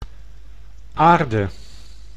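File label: "aarde", Dutch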